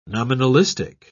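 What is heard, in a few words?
"nominalistic", British English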